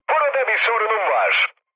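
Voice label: Turkish aircraft damaged, mood med, speech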